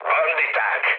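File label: enemy detected, mood low, Hungarian; War Thunder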